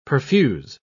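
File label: "perfuse", British English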